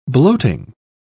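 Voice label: "bloating", British English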